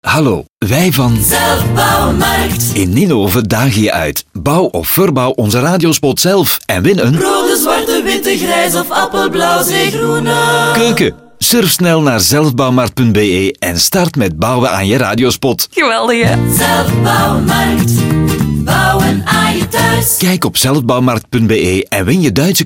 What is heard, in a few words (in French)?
radiopub spot